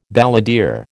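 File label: "balladeer", American English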